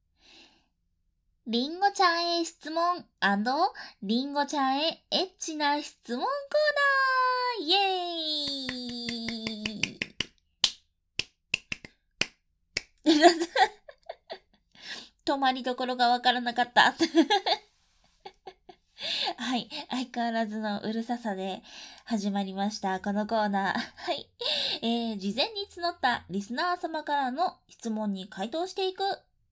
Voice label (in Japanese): 全年齢質問 バイノーラル